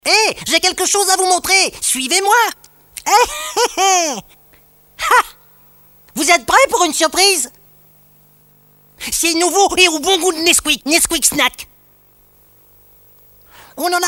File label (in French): voix enfant; casting de voix-off; taurus-studio